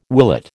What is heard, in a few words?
"willet", American